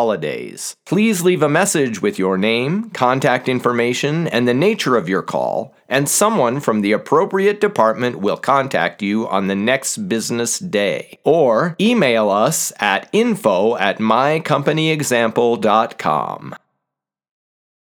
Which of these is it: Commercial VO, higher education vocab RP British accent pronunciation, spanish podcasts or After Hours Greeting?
After Hours Greeting